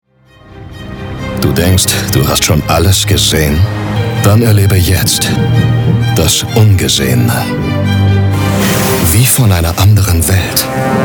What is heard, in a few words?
Commercial